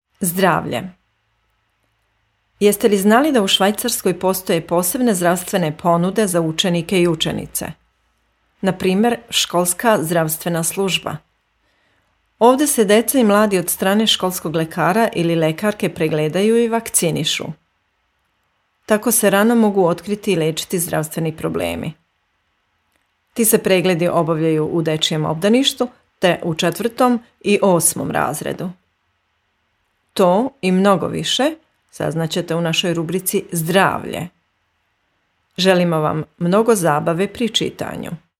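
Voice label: Serbian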